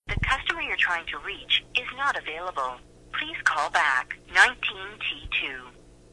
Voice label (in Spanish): resp mujer